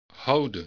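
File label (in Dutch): "houden" Dutch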